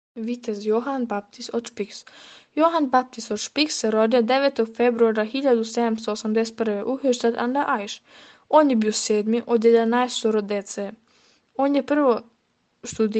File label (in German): serbisch; Das Leben unseres Namensgeber in verschiedenen Sprachen und Dialekten.